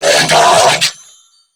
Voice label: Surprised Corpus Crewmen; New War; Narmer